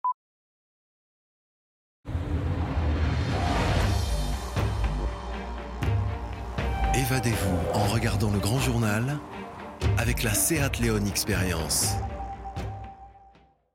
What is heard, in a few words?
Billboard TV; SEAT LEON X-PERIENCE